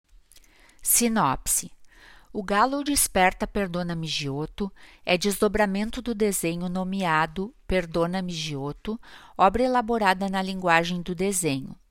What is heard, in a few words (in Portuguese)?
narração sinopse; O Galo desperta Perdonami Giotto; galeria virtual